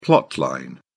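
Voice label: "plot line", British English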